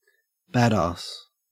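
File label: "badass" Australian English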